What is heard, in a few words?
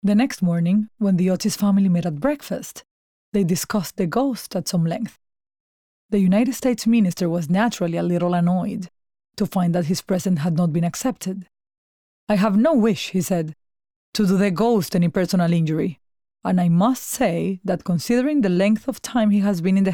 Narrative